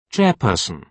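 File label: "chairperson", British English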